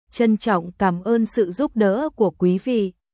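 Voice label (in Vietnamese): Tran trong cam on su giup do cua quy vi, Vietnamese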